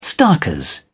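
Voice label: "starkers", British English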